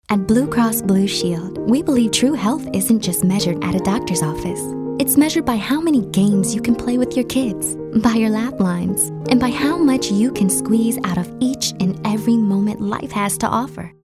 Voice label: caring

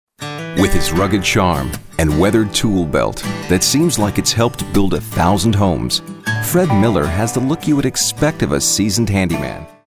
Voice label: folksy homespun

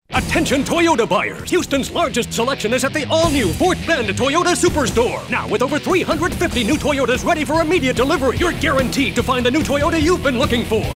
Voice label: hard sell high energy

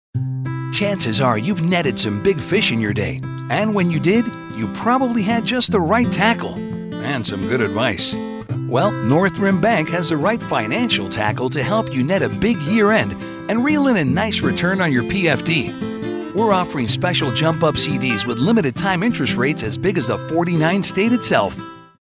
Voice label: friendly upbeat anti announcer

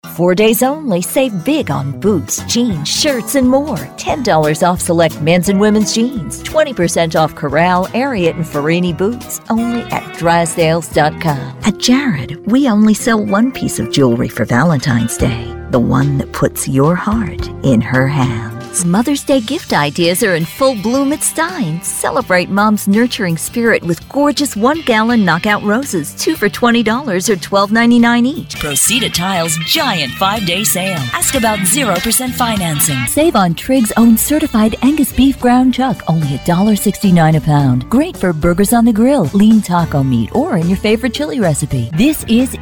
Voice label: Retail Reel

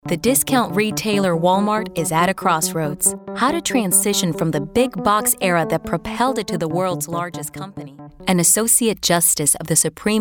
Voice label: English Showcase - Narration

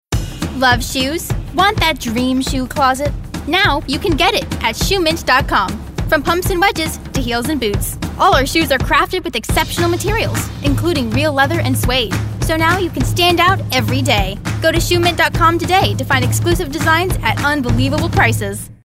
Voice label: upbeat

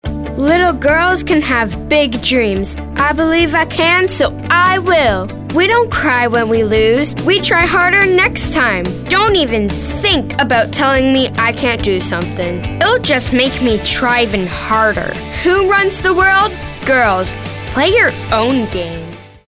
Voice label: motivational

